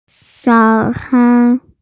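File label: "breakfast", Mandarin; zao3can1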